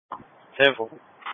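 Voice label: Police Patrol (Dispatch)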